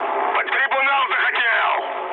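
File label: player killed ally, mood high, Russian; War Thunder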